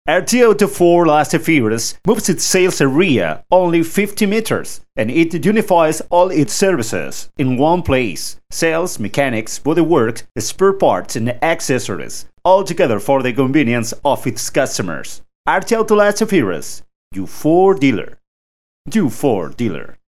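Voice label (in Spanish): otros España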